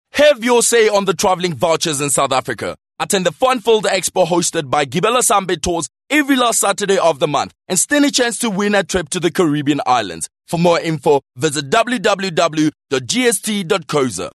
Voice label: English hardsell

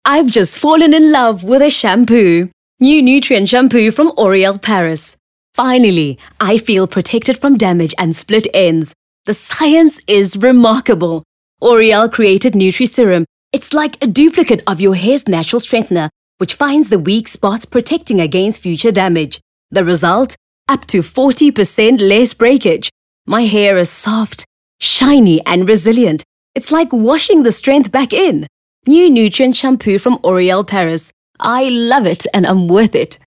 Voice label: English Bright

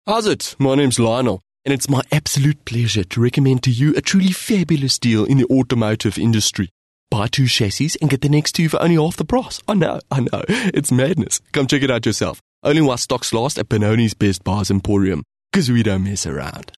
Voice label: English Character Boykie

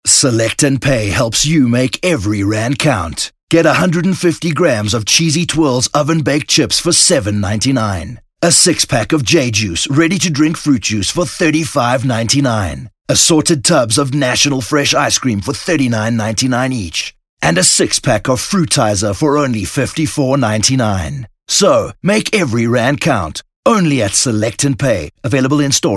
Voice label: English Hardsell

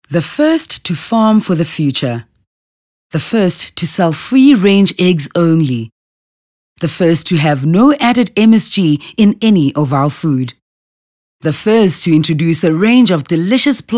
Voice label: English Corporate

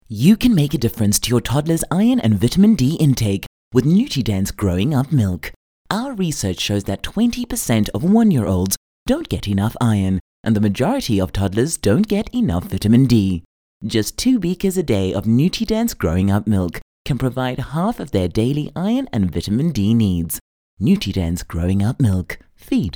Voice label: English Conversational Soft; OSM Talent

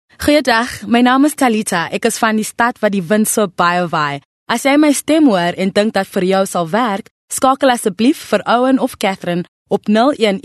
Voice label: Afrikaans conversational

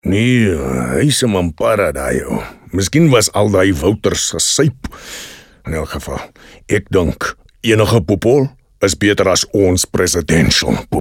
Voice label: Afrikaans character Boer

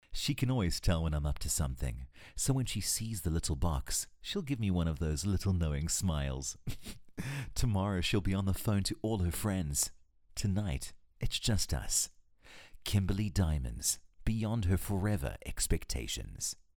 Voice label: English Young Male

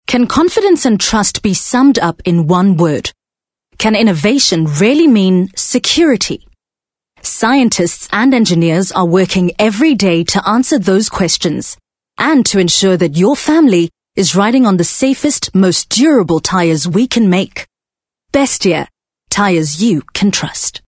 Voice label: English corporate

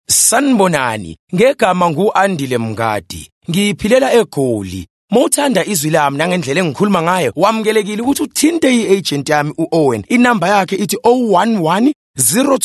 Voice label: Zulu Conversational

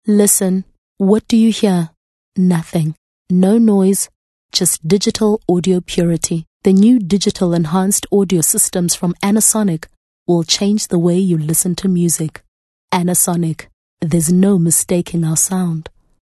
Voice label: English Softsell

